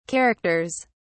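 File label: "characters", British English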